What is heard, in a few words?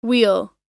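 "wheel", English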